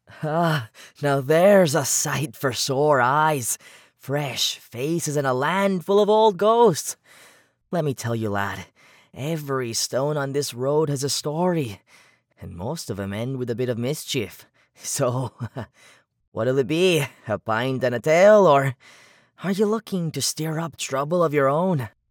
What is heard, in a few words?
Irish Accent Friendly Barkeeper Tavern Jolly Conversational; male voiceover